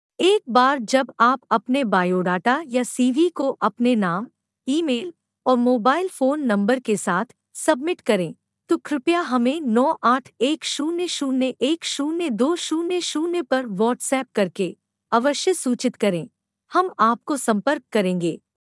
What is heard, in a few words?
Biodata Submit Hindi